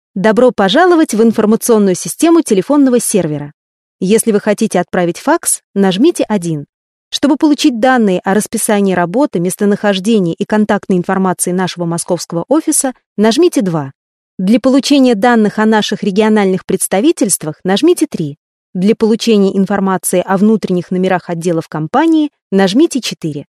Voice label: ivr

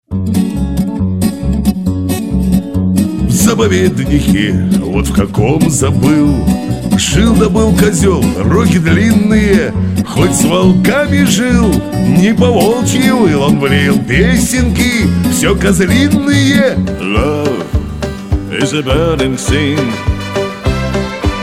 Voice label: RUS

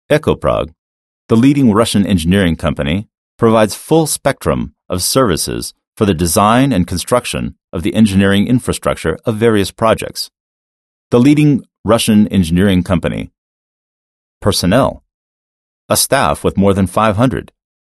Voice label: american english male

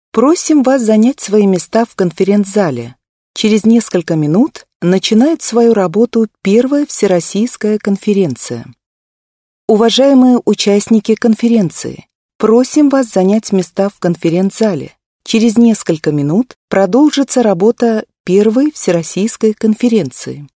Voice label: russian female

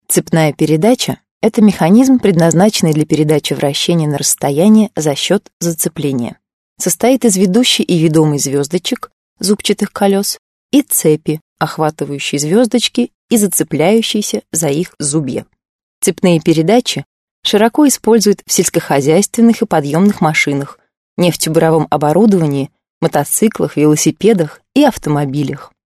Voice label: russian female

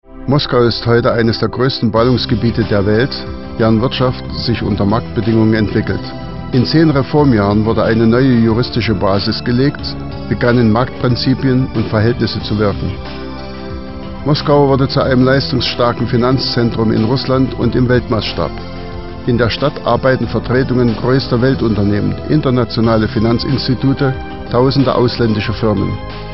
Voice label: german male

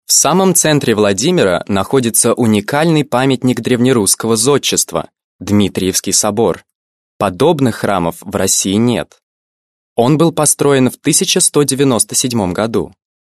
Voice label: russian male

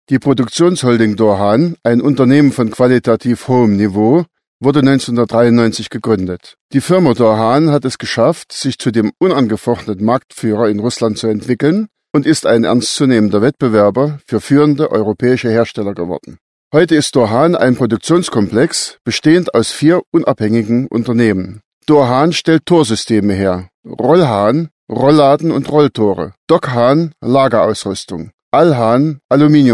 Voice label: german male

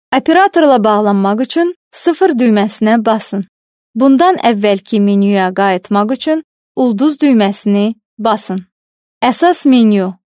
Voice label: azerbaijan female

